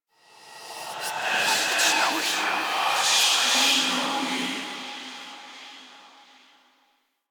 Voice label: Whisper; Enemies; Stalker Acolyte